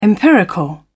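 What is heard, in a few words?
"empirical", British English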